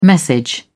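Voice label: "message", British English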